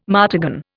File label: "martagon", Indian